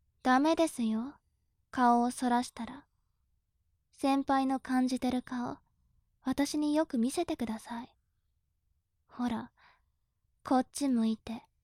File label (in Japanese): 調教中音声